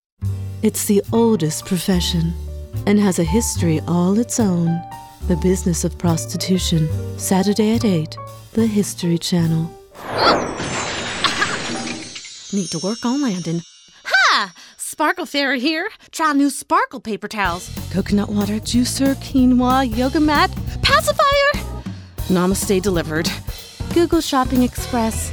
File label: voice over